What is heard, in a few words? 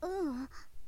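boy, "uun"; shonen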